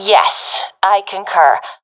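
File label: agree, professional female; vo; agents; Source Model Packs; Counter Strike Model Pack; CS2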